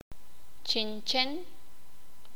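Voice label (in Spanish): "Sonaja chinchín", Sakapulteko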